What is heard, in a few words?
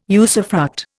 "usufruct", Indian